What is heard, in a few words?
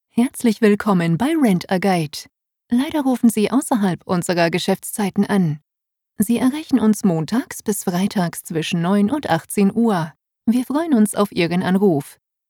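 IVR German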